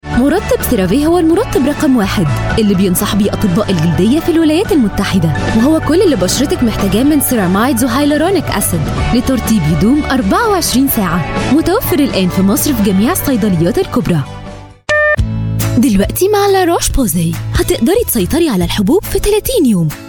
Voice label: arabic voice over female commercial

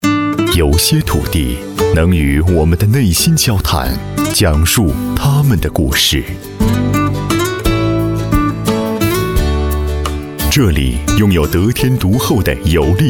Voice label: chinese voice over male narrator

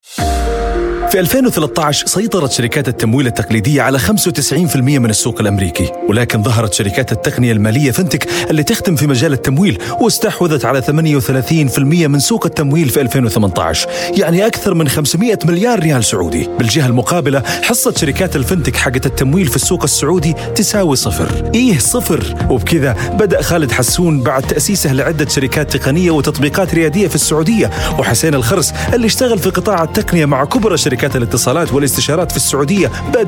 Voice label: arabic voice over male narrator

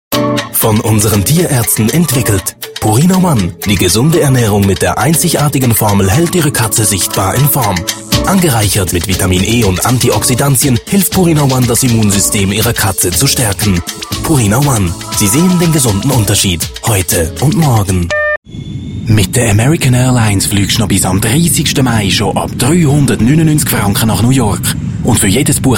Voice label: german voice over male commercial

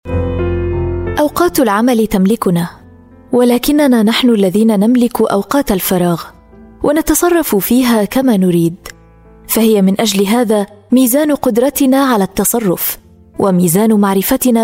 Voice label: arabic voice over female audiobook

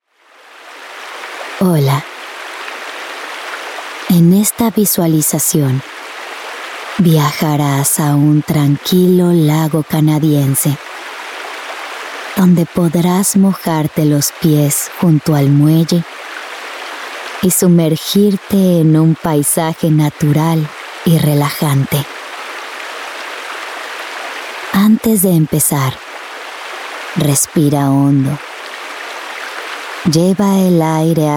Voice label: spanish voice over female narrator

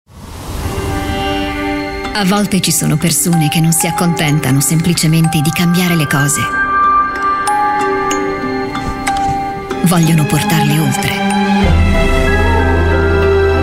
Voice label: italian voice over female commercial

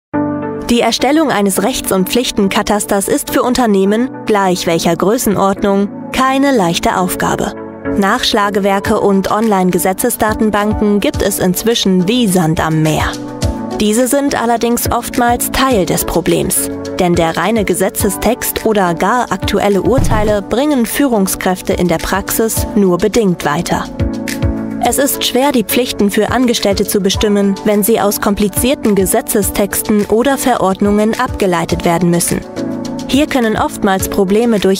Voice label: german voice over female narrator

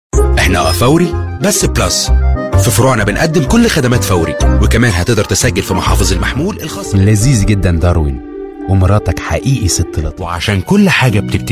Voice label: arabic voice over male commercial

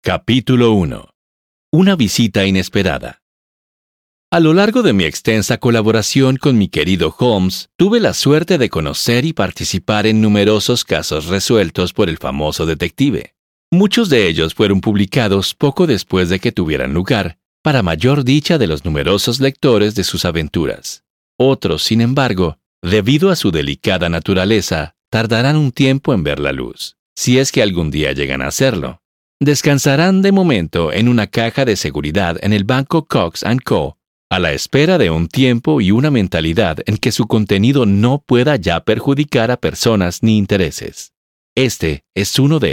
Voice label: spanish voice over male audiobook

